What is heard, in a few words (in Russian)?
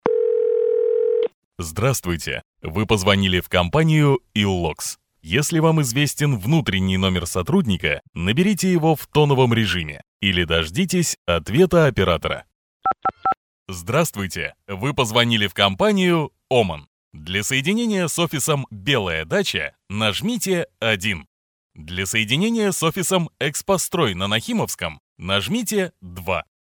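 IVR, мужской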